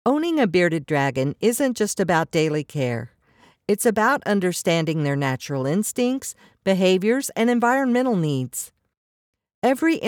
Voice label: Non-fiction · Reflective · Quiet; Audiobooks; Bearded Dragons